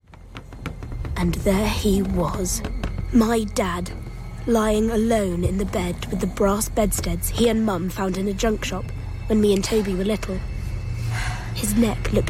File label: Voice Acting